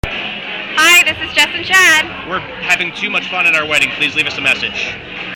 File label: Sample Greeting